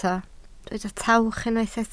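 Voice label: "tawch" Welsh, spoken word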